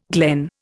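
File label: "glengarry", Indian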